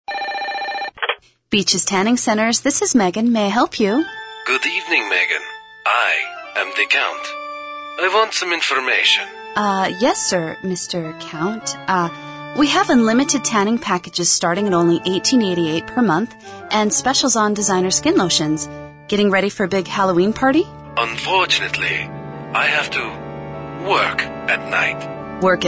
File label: radio ads